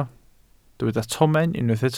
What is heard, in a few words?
"tomen", Welsh